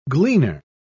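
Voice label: "gleaner", British English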